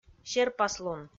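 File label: "серпаслун", Komi, female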